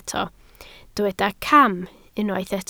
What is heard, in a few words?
"cam", Welsh